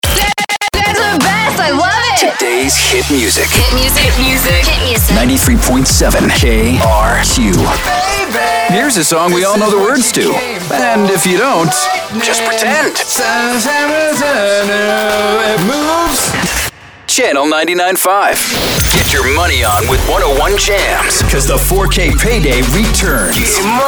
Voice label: radio top 40